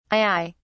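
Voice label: "aiai", British English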